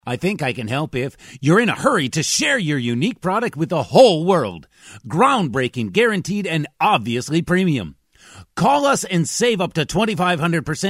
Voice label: ENG-USA